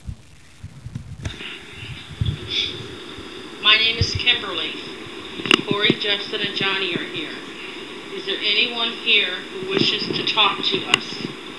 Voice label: male voice